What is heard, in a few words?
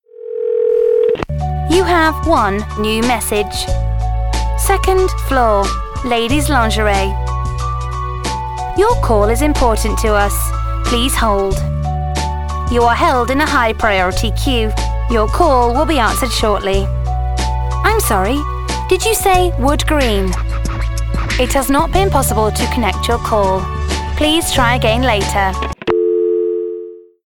IVR